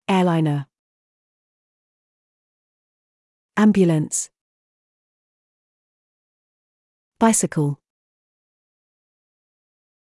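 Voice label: British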